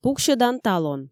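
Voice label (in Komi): пуксьӧдан талон, Komi, female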